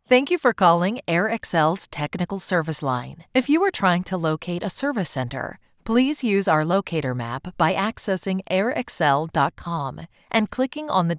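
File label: english american interactive voice response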